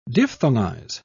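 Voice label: "diphthongize", British English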